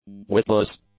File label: "witless", english; adjectives